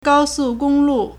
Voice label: "gao1 su4 gong1 lu4", Chinese

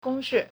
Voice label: "gong1 shi4", Chinese

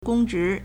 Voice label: "gong1 zhi2", Chinese

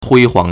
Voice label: "hui1 huang2", Chinese

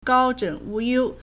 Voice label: "gao1 zhen3 wu2 you1", Chinese

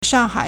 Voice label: "shang4hai3", Chinese

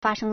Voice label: "fa1sheng1", Chinese

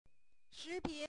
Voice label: "shi2pin3", Chinese

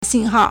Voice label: "xin4hao4", Chinese

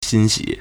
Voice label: "xin1xi3", Chinese

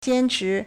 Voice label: "jian1 chi2", Chinese

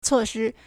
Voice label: "cuo4 shi1", Chinese

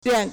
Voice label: "yuan3", Chinese

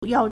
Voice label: "yao1", Chinese

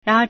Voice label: "ran2 er2", Chinese

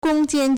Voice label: "gong1 jian1", Chinese

